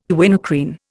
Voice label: "quinacrine", Indian